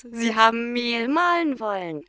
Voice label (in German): Resynthese, intonation